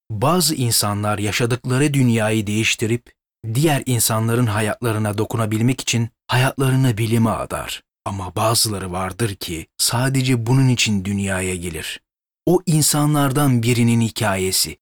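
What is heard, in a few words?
Turkish Educational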